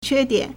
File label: "que1 dian3", Chinese